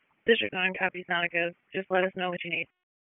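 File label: Public Safety Tactical, p25; wake p25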